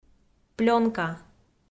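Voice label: "плёнка", Komi, female; kpv audio dict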